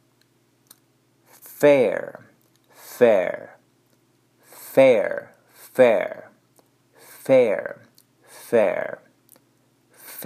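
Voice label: Fair fare, English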